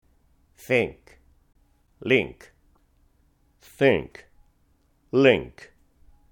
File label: Pronunciation Samples TH L